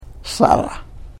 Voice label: "sara", Karuk